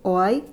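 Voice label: "o hay", Spanish; gleitlaute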